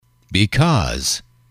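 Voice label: "because", english, male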